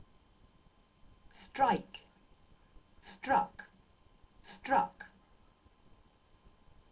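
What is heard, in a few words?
"strike", English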